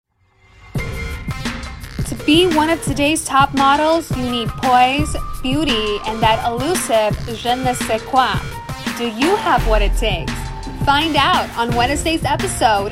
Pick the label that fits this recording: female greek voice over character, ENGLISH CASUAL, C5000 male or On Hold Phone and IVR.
ENGLISH CASUAL